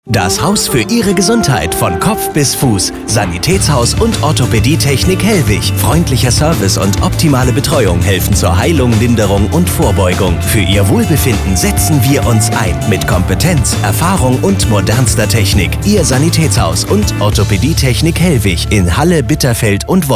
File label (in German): radio